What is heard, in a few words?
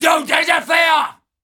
Female Taunt, Cannibal, dialogue